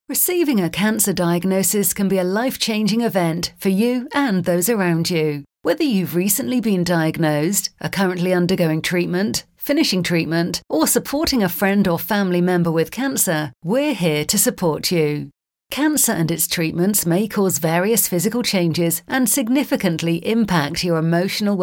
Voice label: corporate